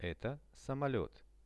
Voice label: "eto samolet", Russian; Это самолёт.; transport; RUSSIAN VISUAL DICTIONARY